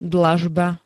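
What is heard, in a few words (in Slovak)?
"dlažba", Slovak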